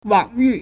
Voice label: "wang3yu4", Chinese

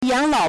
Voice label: "yang3lao3", Chinese

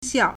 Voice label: "xiao4", Chinese

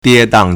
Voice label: "die1dang4", Chinese

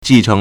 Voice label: "ji4 cheng2", Chinese

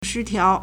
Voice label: "shi1tiao2", Chinese

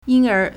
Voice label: "yin1 er2", Chinese

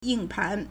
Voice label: "ying4 pan2", Chinese

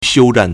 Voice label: "xiu1zhan4", Chinese; 休战 (休戰) xiūzhàn; armistice; Military

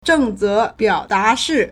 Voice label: "zheng4ze2biao3da2shi4", Chinese

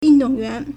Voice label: "yun4dong4yuan2", Chinese

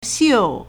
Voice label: "xiu4", Chinese

